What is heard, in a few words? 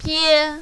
"pie1", mandarin, female